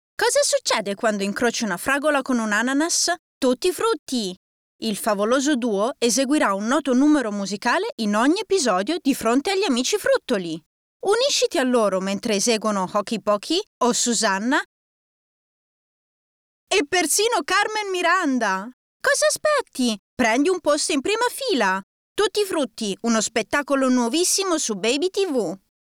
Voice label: italian f character; voice demos

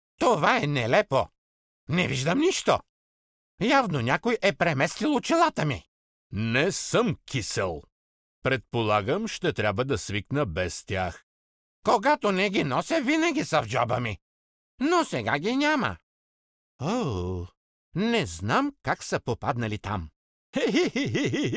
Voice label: bulgarian m character; voice demos

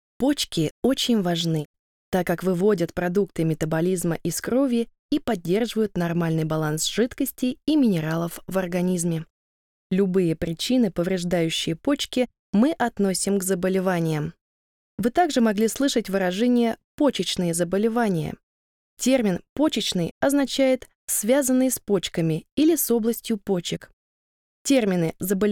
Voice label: russian f e-learning; voice demos

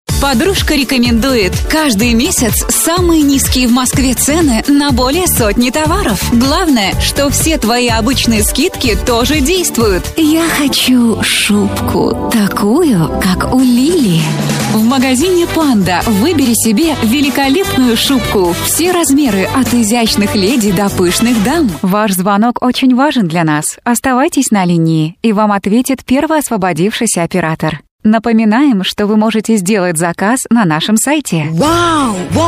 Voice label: ukrainian f commercial

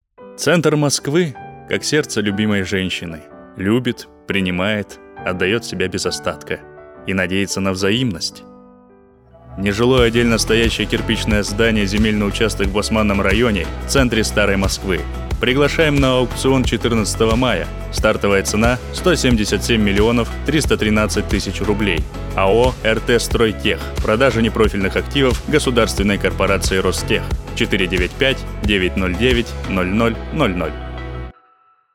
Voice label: russian m commercial

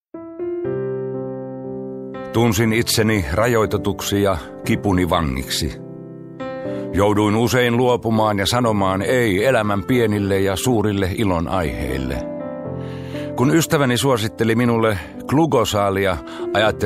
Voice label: finnish m commercial; voice talents

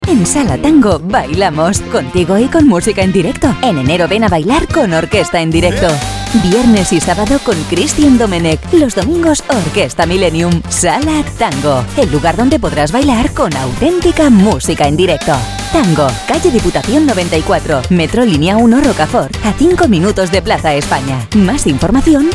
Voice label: spanish f commercial; voice demos

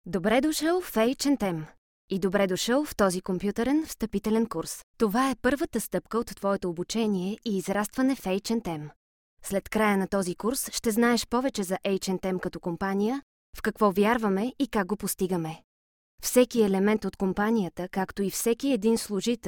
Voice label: bulgarian f e-learning; voice demos

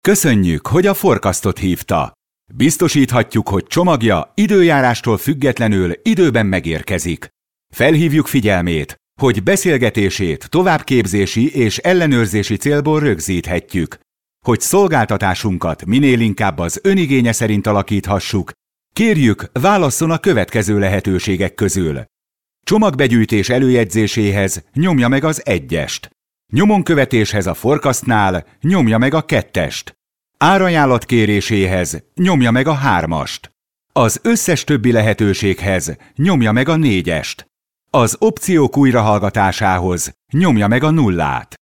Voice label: hungarian m narration; voice demos

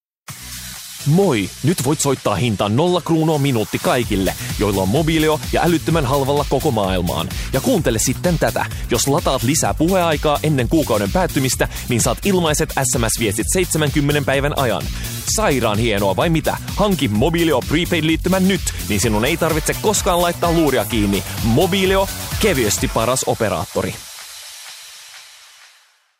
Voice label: finnish m commercial; voice talents

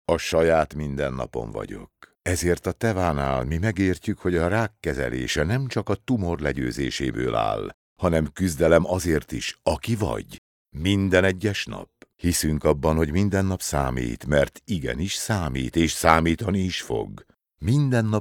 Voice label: hungarian m narration; voice demos

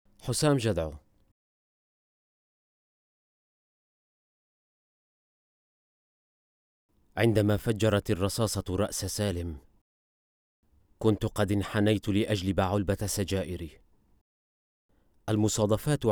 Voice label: arabic syrian m character; voice demos

